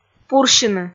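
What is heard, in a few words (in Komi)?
"пурсьыны", Komi, female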